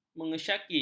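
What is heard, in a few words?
"mengesyaki", Malay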